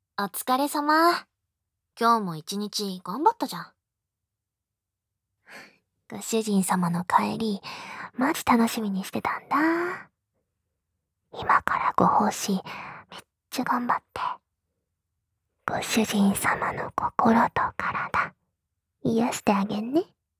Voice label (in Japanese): よしよしボイス